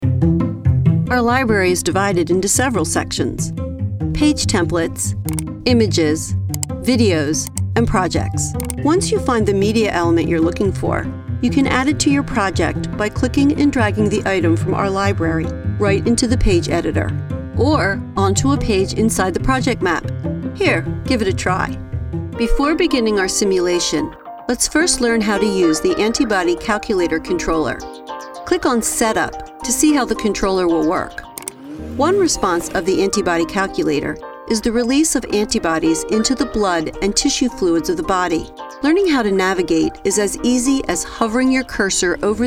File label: eLearning